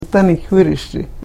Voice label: karuk